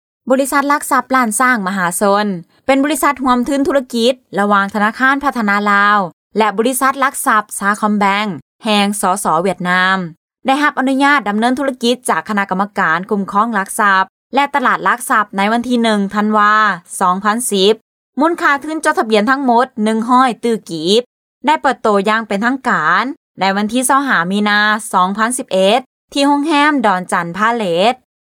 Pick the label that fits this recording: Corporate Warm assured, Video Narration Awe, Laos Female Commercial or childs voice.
Laos Female Commercial